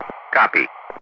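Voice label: "copy", English, Ground Crew; DCS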